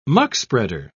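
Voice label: "muckspreader", British English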